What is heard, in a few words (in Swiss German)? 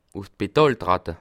"pedal", alsace